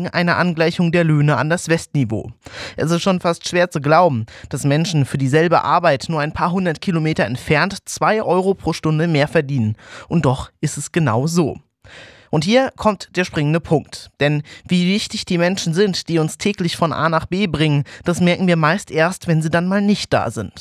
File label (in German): Streik Kommentar; RSAG